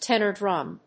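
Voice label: "tenor drum", English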